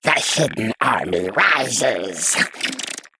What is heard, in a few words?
Male Mantid Play